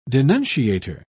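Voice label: "denunciator", British English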